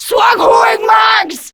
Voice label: Reload Grineer Female; Warframe; Battle Barks